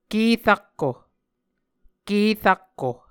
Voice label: "ke rakko", Muscogee; Mvskoke Words with Repeated Consonants; Onvkv Ohhvyayices